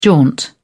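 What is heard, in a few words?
"jaunt", British English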